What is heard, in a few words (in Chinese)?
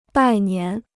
"拜年", Chinese